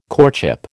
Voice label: "courtship", American